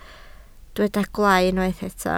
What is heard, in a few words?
"gwaalau", Welsh